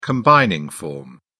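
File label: "combining form", British English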